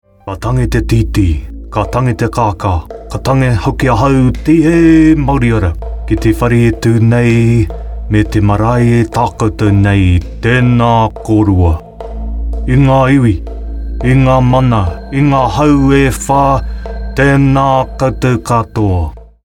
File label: Te Reo